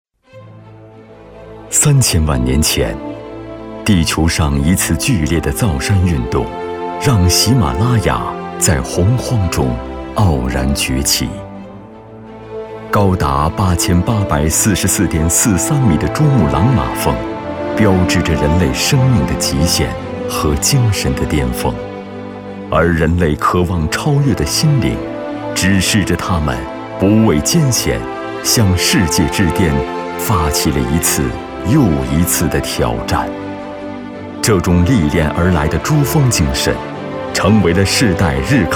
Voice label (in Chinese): 男 纪录片 珠峰